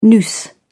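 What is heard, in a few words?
"noose", British English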